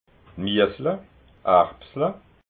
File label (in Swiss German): "miesla", alsace